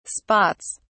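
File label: "spots", British English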